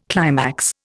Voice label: "climax", Indian